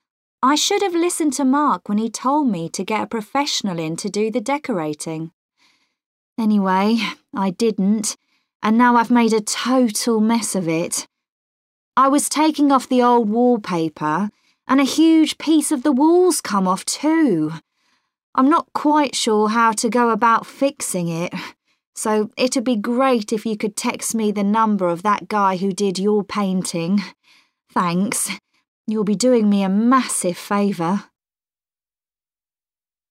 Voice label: Carly is leaving a voicemail message for her friend